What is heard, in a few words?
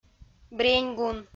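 "бреньгун", Komi, female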